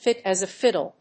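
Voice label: "fit as a fiddle", English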